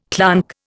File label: "clonk", Indian